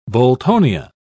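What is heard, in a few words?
"boltonia", British English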